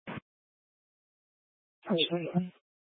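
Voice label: Incident Tac 3, Scanner audio recording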